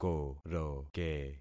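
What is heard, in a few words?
"koroke", concatenated syllables; Affixing experiment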